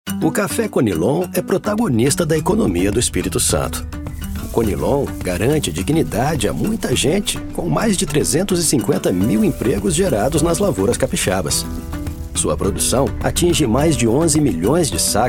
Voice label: portuguese brazilian

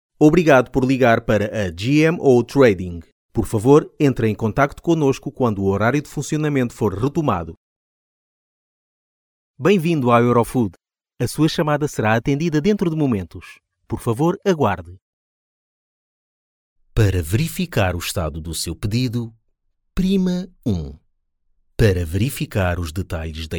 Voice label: portuguese ivr

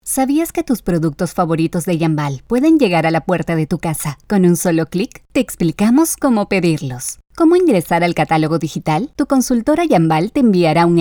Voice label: spanish peruvian e learning

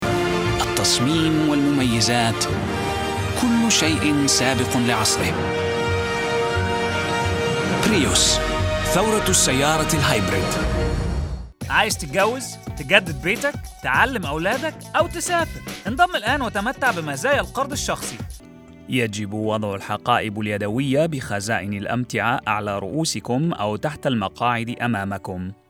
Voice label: arabic commercial

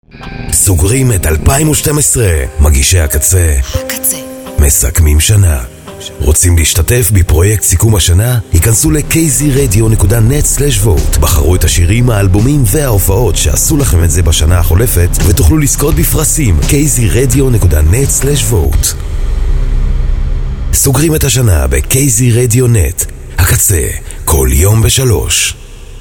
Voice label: hebrew promos

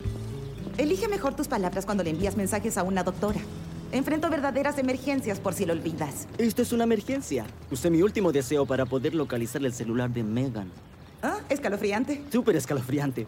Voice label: spanish latin american tv shows

